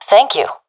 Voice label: thankful, professional fem; vo; Counter Strike Model Pack; CS:GO